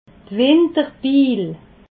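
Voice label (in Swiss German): "winterbil", Alsatian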